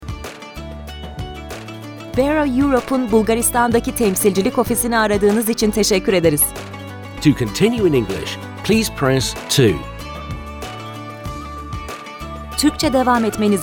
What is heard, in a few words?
female, Turkish IVR